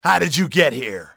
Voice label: "how did", english male; XBOX; enemy

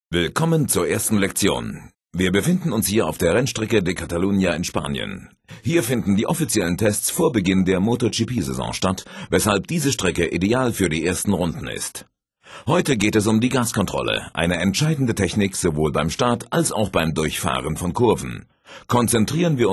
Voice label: throttle german; MotoGP 3

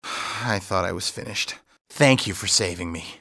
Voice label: thank, english male; Soldier Of Fortune II Double Helix; XBOX; civ

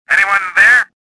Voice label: suspicious; swamp

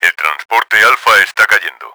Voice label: alpha down, Spanish; Battle Engine Aquila; XBOX

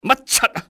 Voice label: what the, enemy cantonese male; XBOX

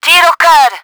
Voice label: shoot core, french; Battle Engine Aquila; XBOX

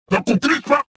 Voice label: anger; XBOX

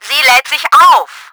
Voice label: POWER UP, german; Battle Engine Aquila

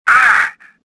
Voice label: choke; swamp

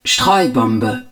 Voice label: spread bomb, german; Battle Engine Aquila; XBOX

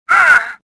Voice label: pain; XBOX; worker

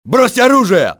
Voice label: drop your weapon, russian soldier; XBOX

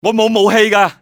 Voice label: "unarmed", male; XBOX; civ

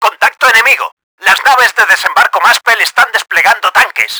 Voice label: enemy engaged, Spanish; Battle Engine Aquila; XBOX

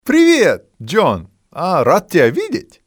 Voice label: hey john, male; XBOX; civ

